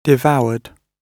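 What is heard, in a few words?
"devoured", British English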